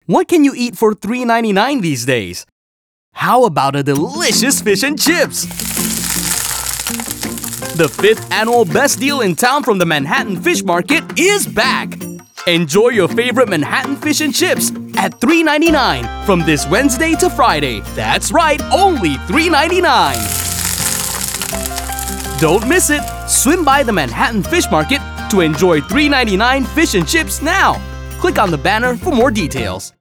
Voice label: Eng With Music; SPOTIFY Fish Market

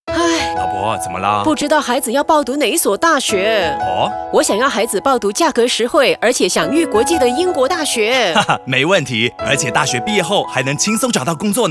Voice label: Conversational father Low NOTTINGHAM

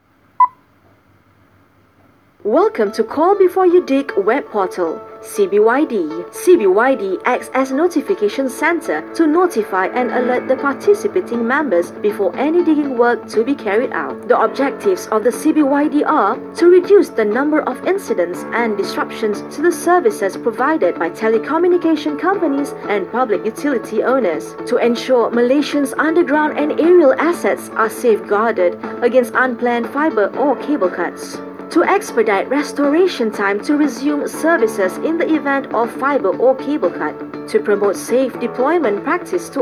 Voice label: Corporate Video

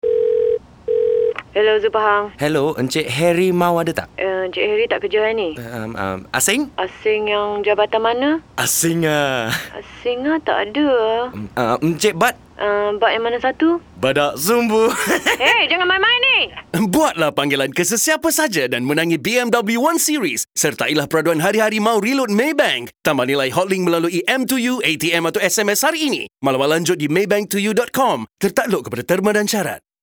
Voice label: MVO BM

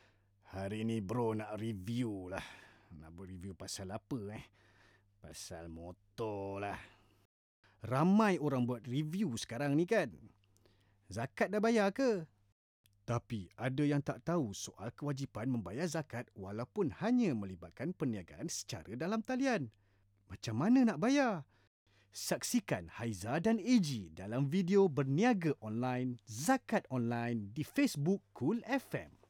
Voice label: serious

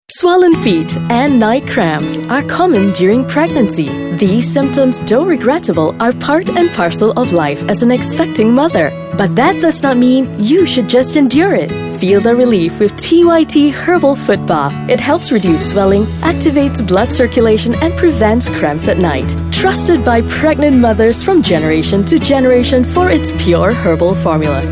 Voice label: ENG; TYT HERBAL FOOT BATH

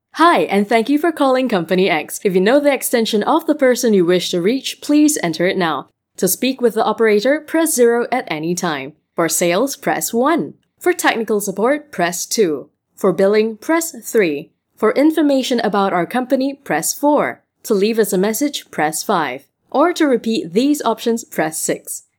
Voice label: Voice Prompt Phone

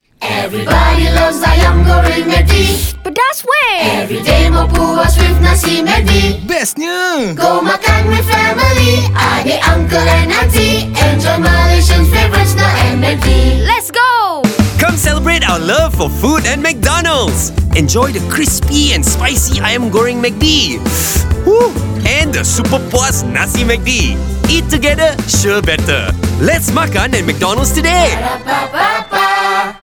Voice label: English; McDonalds

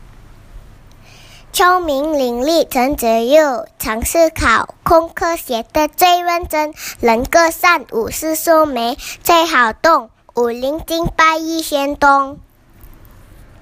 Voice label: Mandarin Poem; My Friends

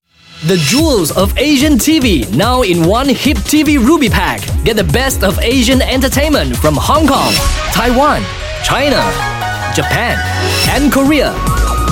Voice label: TVC ENG; HyppTV Ruby

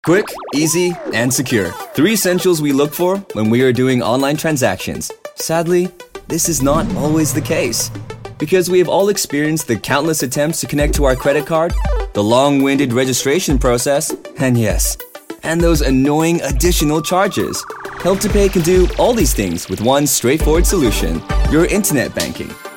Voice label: Corporate friendly; Help2pay

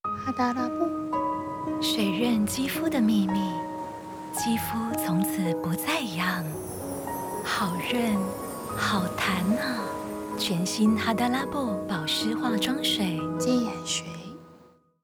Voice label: TV Beauty MAND; Hada Labo

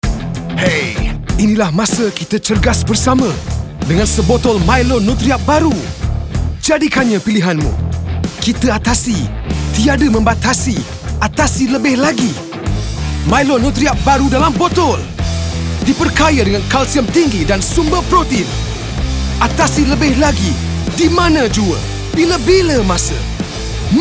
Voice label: BM Casual; MILO NUTRI UP

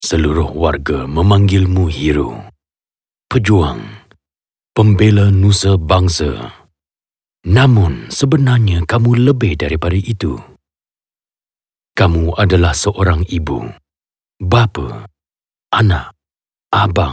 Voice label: BM Anncr Low

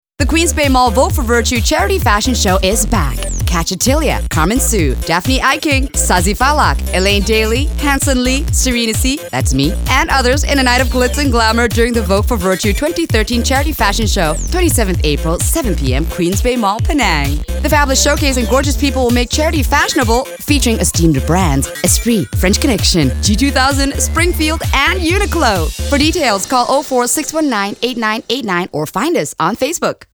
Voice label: V o ENG Music; QUEENSBAY MALL VOGUE 2013

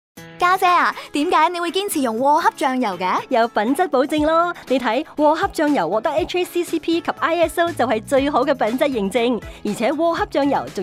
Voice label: Cantonese; elder sister

